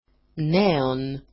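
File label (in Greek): "neon", Greek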